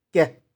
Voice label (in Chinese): "kih4", 玉環